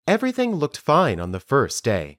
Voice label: Dictation